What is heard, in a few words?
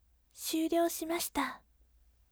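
voice syuryo shimashita gentle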